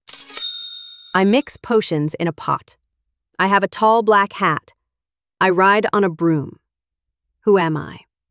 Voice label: Witch, HALLOWEEN MYSTERY VOICES